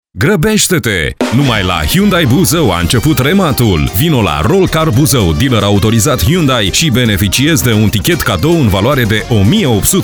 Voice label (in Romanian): spot; hyundai